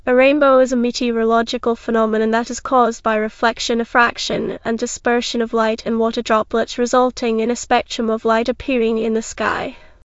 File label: speaker, en GB; piper voices; vctk